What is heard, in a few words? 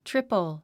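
"triple", English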